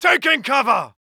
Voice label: uk Neutral